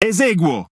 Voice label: Speech Quick Commands Aye Aye, IT; World Of Warships